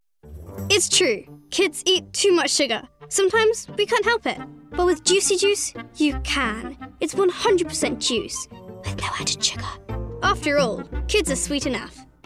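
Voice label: Commercial; Comm Demo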